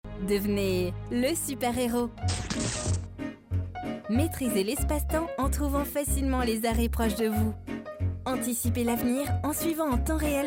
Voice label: Medley Institutionnel corporate et motion design

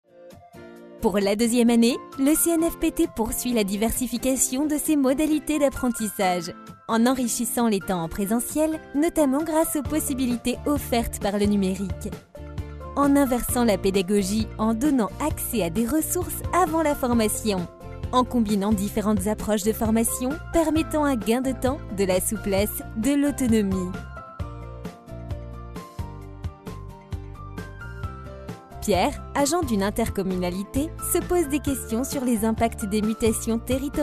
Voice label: Institutionnel Voix Off femme; CNFPT

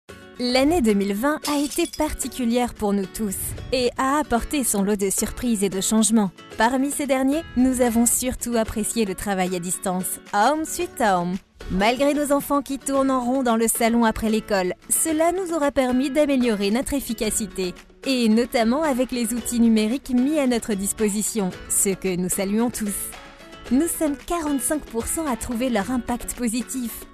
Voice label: Institutionnel Voix Off feminine; EDF

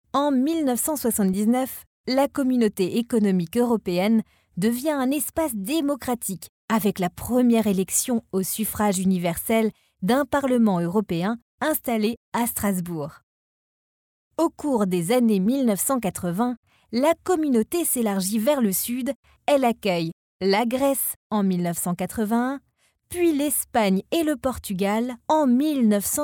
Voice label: Voix Off femme Histoire

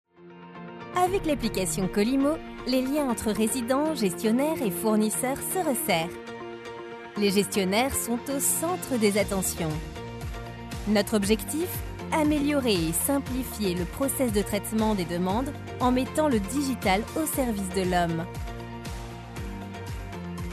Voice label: Institutionnel Corporate Voix Off femme; Kolimmo

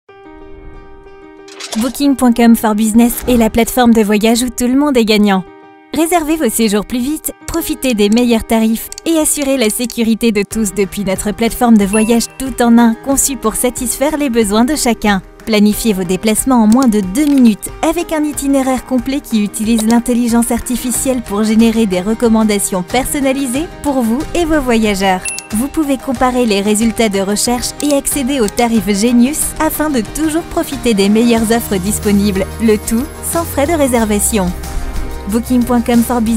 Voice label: Voix Off femme

